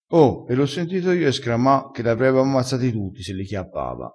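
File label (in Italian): "escrama", vocabolario dialettale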